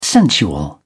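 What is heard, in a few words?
"sensual", British English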